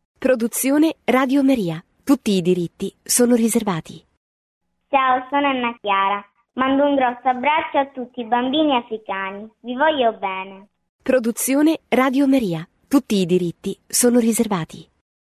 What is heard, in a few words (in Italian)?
9 anni